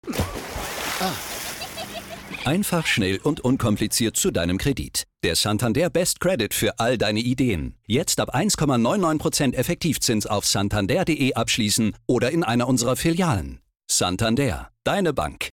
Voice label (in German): Werbung Funkspot Kredit; LOFT VOICES